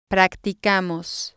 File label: "practicamos", spanish